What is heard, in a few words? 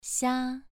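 "xia" Mandarin Chinese, second tone, pronunciation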